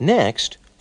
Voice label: next, announcer

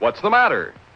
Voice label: what's the matter, male

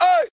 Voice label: "ay", voice

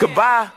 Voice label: "good bye", male; Voice; expletives

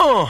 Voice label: "uh" male scratch; ooh ahh; uh um

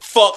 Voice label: "fuck", expletive

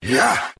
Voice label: "yeah", group of men

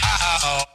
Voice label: "uh uh oh", Voice; ooh ahh; uh um

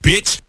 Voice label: "bitch", expletives

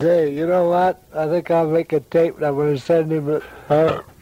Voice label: make tape that sounds burp; Voice

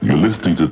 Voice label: you're listening to male; Voice; introductions

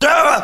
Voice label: "duh", voice

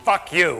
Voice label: "fuck you", male; Voice; expletives

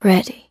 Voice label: "ready", female; Voice; introductions

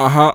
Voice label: "uh huh", male; ooh ahh; uh um

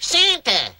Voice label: santa cartoon; Voice; xmas

